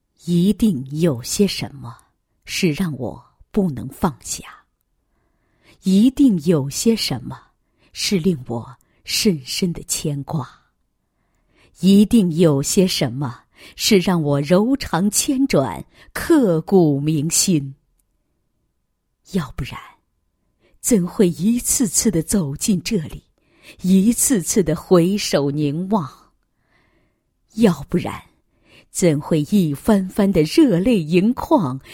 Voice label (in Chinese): 女 深情散文; 妈妈儿子